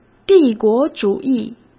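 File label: "帝国主义", Mandarin Chinese; hsk; chinese-flashcards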